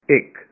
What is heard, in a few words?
"one" Punjabi